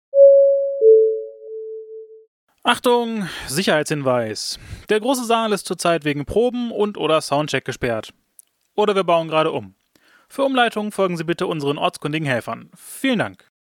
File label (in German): durchsage